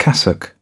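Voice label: "cassock", British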